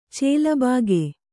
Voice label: "ಚೆಲಬಾಗೆ", Kannada

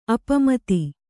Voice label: "ಅಪಮಿತ", Kannada

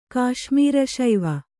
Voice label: "ಕಾಶ್ಮೀರಶೈವ", kannada

